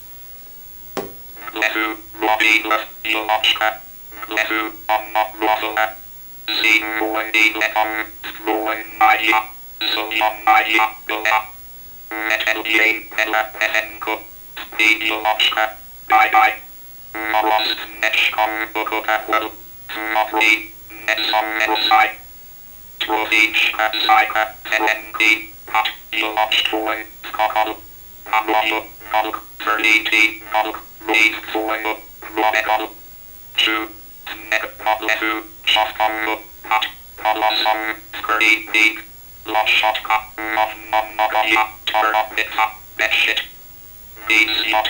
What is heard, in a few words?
Synthesized speech